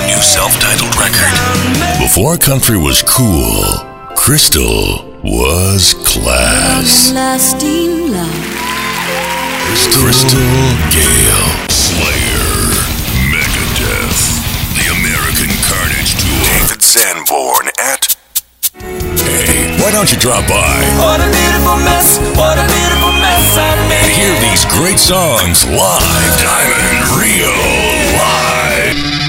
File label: concert ad